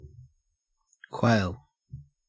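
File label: "quail", Australian English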